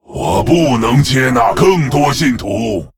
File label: Male Lich ERROR FULL MINIONS, zh